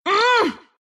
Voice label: anger, ru; Dota 2

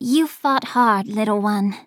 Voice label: Taunt Directed; Ying; Ruckus

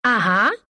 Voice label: acknow, ru; Dota 2

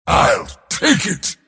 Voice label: last hit pain; Mars

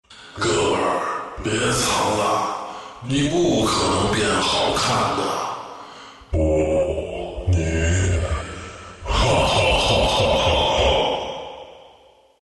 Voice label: invis zh; Dota 2

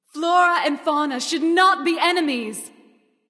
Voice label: Taunt Directed; Celtic Terra; Erlang Shen; Smite

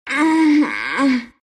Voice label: pain, ru; Dota 2

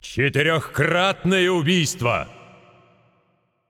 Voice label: Announcer Russian Spree Classic 4 Kills

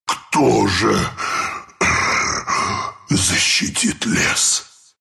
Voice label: death, ru; Ursa; dota2

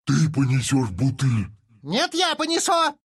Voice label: bottle, ru

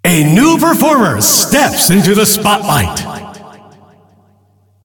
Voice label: Announcer; Awesomenauts; Ringmaster

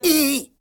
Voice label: pain; Awesomenauts

